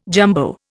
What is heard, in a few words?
"jumbo", Indian